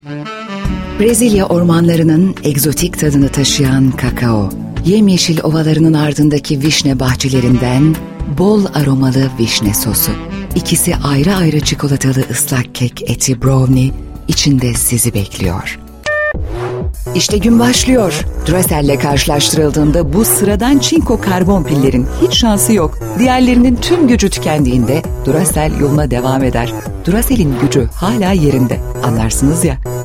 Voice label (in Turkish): reklam